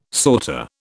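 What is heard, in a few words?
"sauté", British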